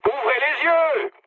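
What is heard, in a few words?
player friendly fire, mood low, French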